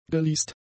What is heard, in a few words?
"geleast", German; adjectives